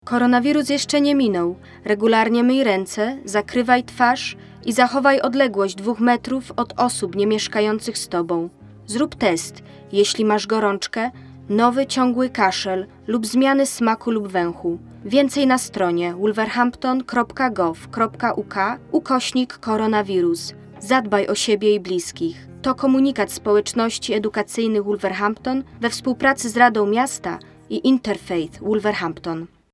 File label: Polish